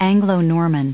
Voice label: "anglo-norman", us